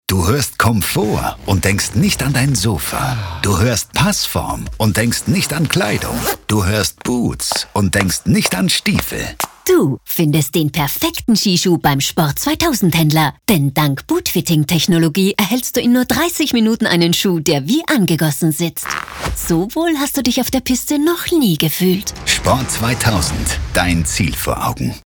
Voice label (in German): Sprecherdemo, TV-Werbung; Sport2000 Bootfitting; Hörbeispiele